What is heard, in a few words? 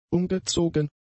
"umgezogen", German; adjectives